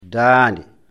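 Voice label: "daande", Fula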